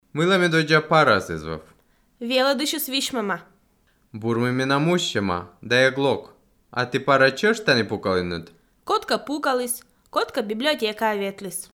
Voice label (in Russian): dialog